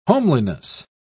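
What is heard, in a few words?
"homeliness", British English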